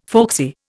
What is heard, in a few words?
"folksy", Indian